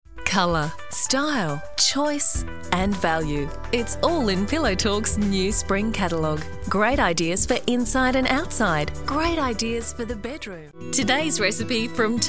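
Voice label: English Australian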